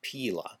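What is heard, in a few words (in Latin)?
"pīla", Latin, classical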